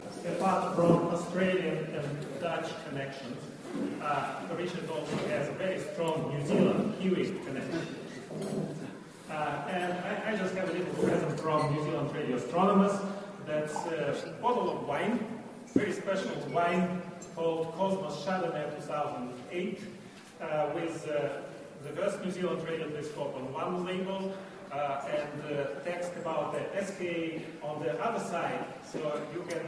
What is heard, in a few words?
Conference Dinner Speech